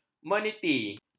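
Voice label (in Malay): "meniti", Malay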